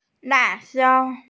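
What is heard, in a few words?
"la4jiao1", Mandarin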